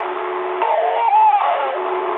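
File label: damaged pilot mood high, speech English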